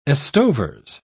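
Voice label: "estovers", British English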